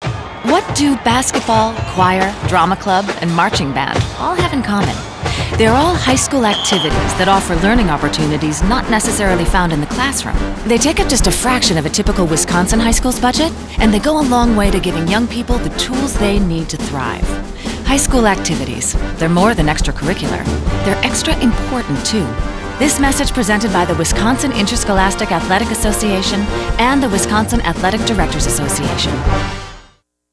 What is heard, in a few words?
audio spot